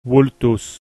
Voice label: "vultus", Latin